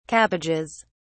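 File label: "cabbages", British English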